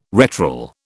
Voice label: "retral", British